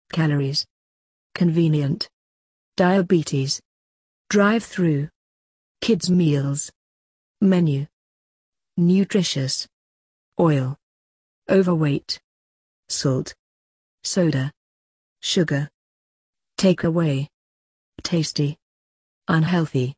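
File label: British; fastfood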